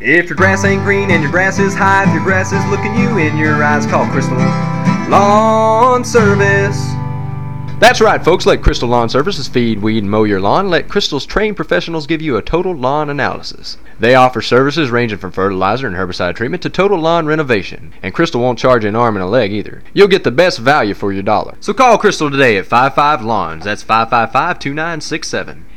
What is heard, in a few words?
Country Commercial